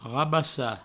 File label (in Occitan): "rabassa rabasso", provencal; vocabulaire fondamental du provencal